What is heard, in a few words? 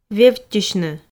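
"вевттьысьны", Komi, female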